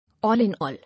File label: "All in all", English